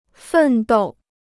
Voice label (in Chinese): "奋斗", Chinese